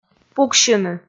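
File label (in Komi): "пуксьыны", Komi, female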